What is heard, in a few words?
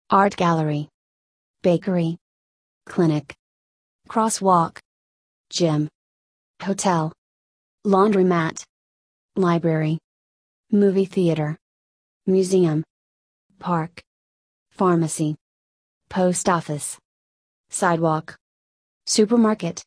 usa